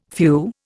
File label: "phew", Indian; Dictionary